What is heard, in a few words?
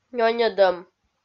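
"нёньӧдӧм", Komi, female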